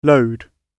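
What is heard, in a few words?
"load", British English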